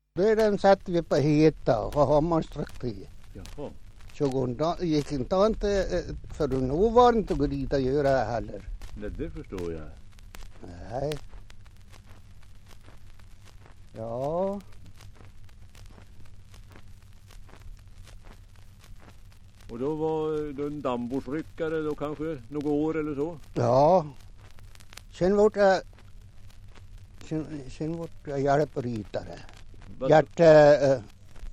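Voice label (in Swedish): Hed Västmanland, dialekter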